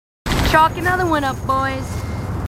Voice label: marines female, marine tough